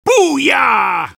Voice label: soldier cheers; tf2